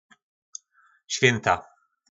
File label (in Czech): "Švjynta", nářečí Po našimu; Vánoce; Ve švjynta se spočivo.